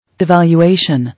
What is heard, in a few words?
"Evaluation", English